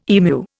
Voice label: "emu", Indian